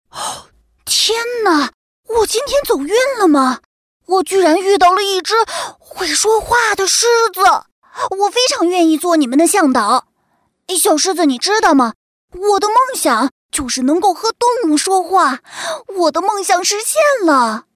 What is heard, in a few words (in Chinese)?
动画 童声 小男孩 好奇 惊喜 夸张